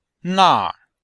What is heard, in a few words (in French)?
"nar4", chinois; Cours de chinois; Leçon7